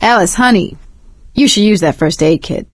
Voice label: suggest health, survivor voice; Left 4 Dead 2; producer; ellis

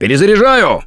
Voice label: reload intense, russian; Gambler

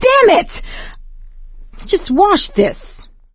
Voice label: boomer reaction, survivor voice; Left 4 Dead 2; producer

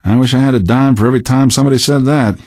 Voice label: answer, male; Garry's Mod; hl2

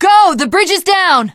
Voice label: teengirl, voice; bridge run; Left 4 Dead 2; l4d1 finale

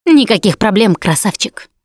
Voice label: You Are Welcome, russian; Voice; Producer

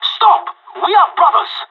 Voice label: friendlyfire, vo pirate; Counter-Strike Global Offensive

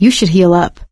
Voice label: suggest health, survivor voice; Left 4 Dead 2; producer

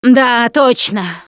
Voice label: taunt, russian, Survivor Voice Producer

